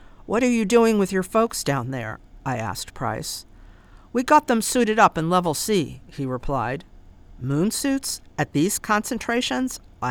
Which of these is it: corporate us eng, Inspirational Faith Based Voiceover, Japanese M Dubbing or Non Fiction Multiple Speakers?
Non Fiction Multiple Speakers